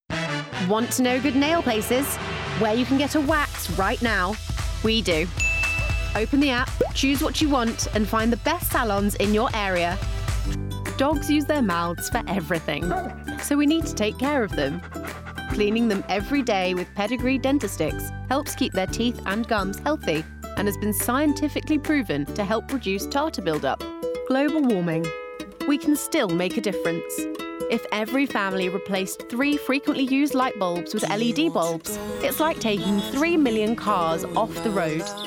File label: Commercial Montage